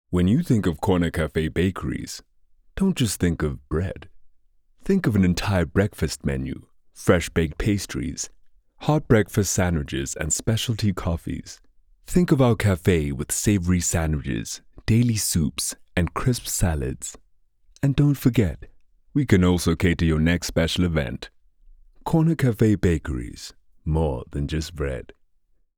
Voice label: English Conversational; OSM Talent